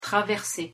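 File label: "traverser", French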